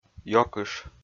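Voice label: "ёкыш", Komi, male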